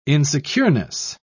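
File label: "insecureness", British English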